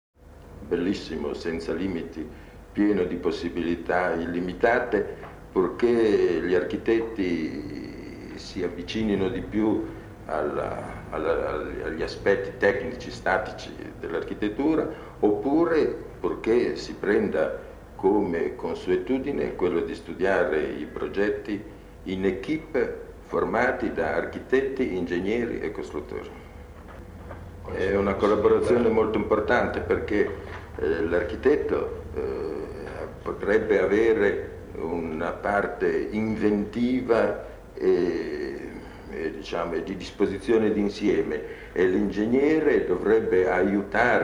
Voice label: architects Spoken Word, mono; nervi; city of the future